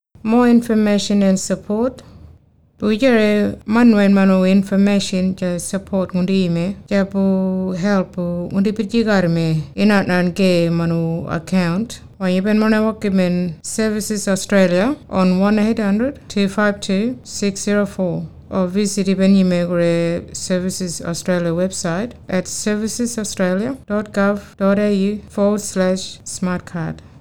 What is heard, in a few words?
kunwinjku more information and support